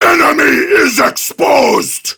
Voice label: Enemy Spotted Prime Grineer Male